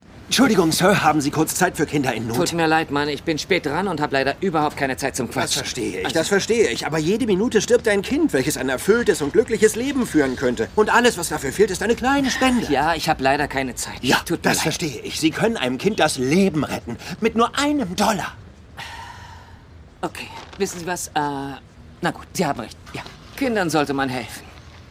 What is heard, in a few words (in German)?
Synchron, maenner; Key&Peele